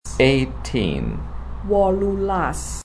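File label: "Wolulas" Javanese; 18 eighteen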